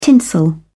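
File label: "tinsel", British English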